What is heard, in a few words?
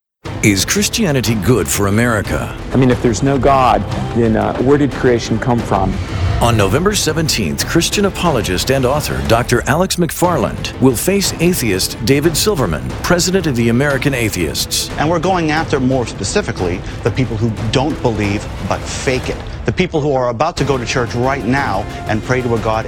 Debate Radio Promo